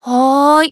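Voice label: Voice Emotion Reply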